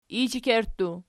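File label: "ishekerttu" nominative, Archi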